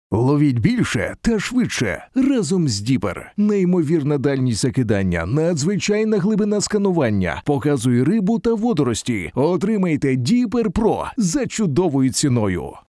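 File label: Ukranian, male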